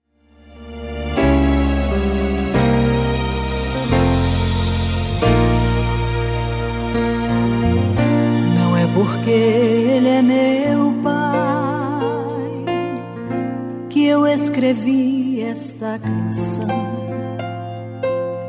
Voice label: ANIV ATRASADO PARA PAI MASC, telemensagem aniversario atrasado familia

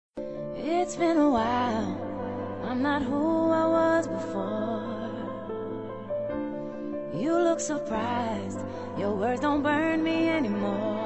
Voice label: Aniversario Ex Sogro Duas Vozes, telemensagem

